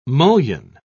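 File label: "mullion", British English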